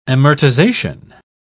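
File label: "amortisation", English, British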